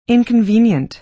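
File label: "inconvenient", American English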